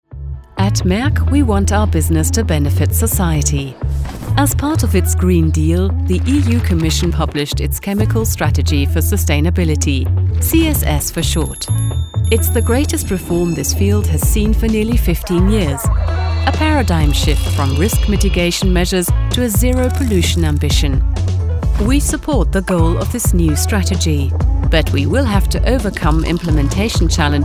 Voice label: EN Image; MERCK